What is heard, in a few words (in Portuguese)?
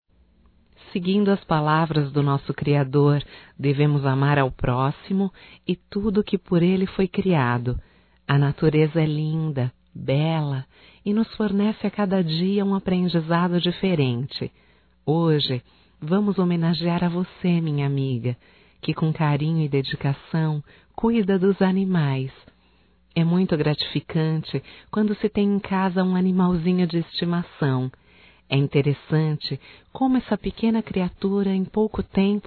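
HOMENAGEM A VETERINáRIA (Feminino), Telemensagens; Homenagens; Telemensagens 2017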